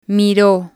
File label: "miro", spanish